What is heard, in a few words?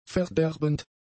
"verderbend", German; adjectives